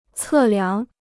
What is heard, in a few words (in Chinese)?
"测量", Chinese